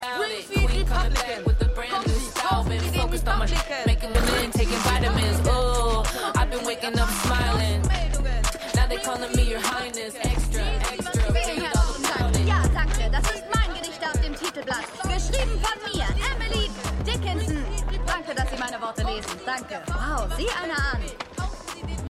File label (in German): Zeitungsjunge, Stimmproben